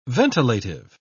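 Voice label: "ventilative", British English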